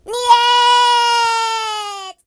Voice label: "Nooo", voices Russian; hedgewars

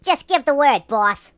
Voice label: "Yes sir", Mobster

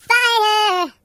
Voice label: Fire, voices Singer; hedgewars

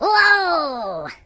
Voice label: "Whoopsee", Pirate; hedgewars

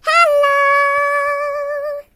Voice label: "Hello", singer voice

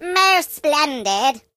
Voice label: "Amazing", British; hedgewars

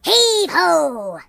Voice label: "Bungee", Pirate; hedgewars; HedgewarsMobile

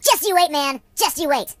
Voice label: "Just you wait", Surfer; HedgewarsMobile

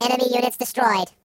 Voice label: "Victory", robot voice; hedgewars

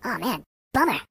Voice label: "Oh dear", Surfer voice; hedgewars

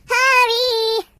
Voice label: "Hurry", voices Singer

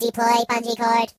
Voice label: Bungee, Robot voices; hedgewars

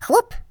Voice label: Jump, Russian; Russian pl

